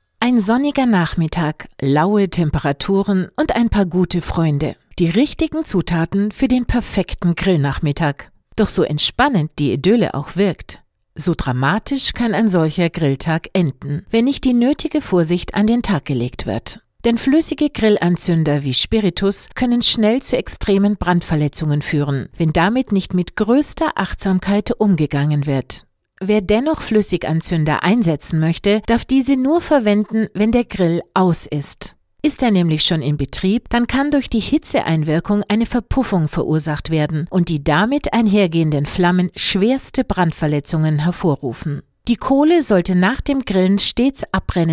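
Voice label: German, female, narration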